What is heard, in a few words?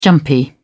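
"jumpy", British English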